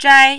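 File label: "zhai1", mandarin, high level tone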